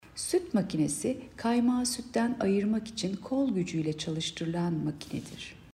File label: turkce